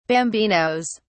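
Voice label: "bambinos", British English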